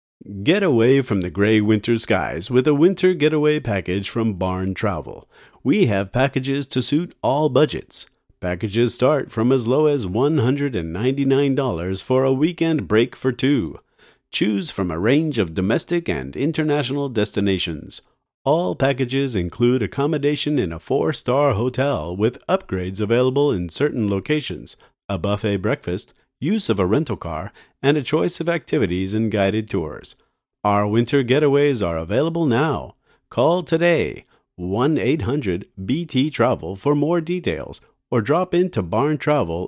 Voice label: advertisement; fs dictation exercises b1